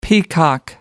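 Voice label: "peacock", English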